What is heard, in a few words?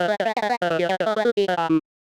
medium clear jolly, voice archetypes, gravel; voxel audio preview